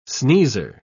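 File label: "sneezer", English pronunciation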